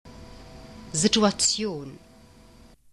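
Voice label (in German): "situation", German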